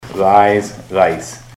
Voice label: lies lice, ontario